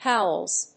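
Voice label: "howls", English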